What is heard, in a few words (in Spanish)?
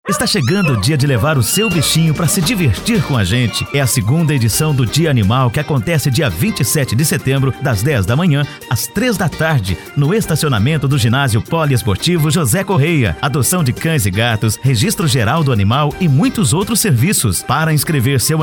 Locutor spot; animal